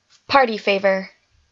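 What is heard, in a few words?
"party favor", English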